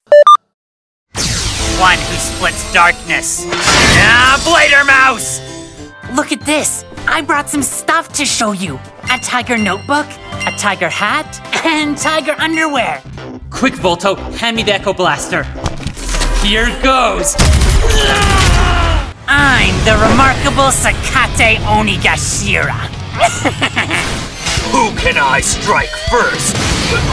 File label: English CN M Character; accent of canada